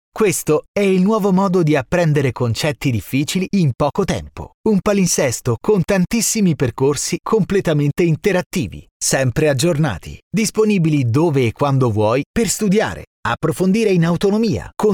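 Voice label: Italian Male Explainer Video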